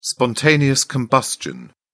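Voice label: "spontaneous combustion", British English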